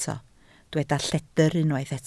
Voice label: "lledr", Welsh